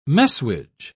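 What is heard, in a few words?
"messuage", English, British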